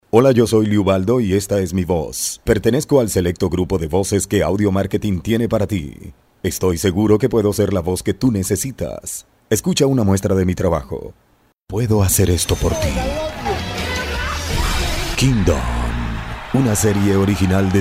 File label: Venezuela; Locutores; Audiomarketing